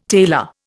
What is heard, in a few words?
"tailor", Indian